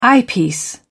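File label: "eyepiece", British English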